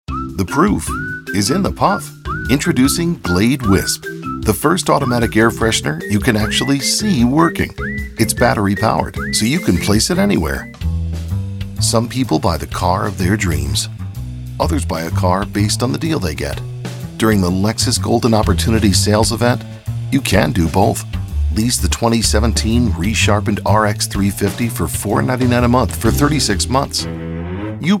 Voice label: Commercial Conversational, Professional Male Voice Over